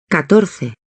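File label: "catorce" Spanish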